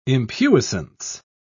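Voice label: "impuissance", British English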